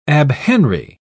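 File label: "abhenry", British English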